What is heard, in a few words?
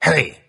"hey", Voice